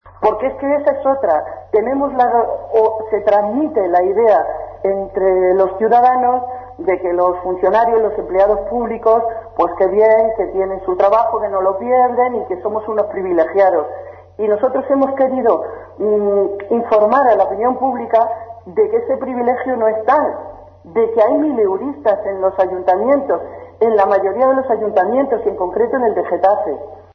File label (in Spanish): noticias